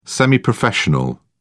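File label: "semi professional", English, British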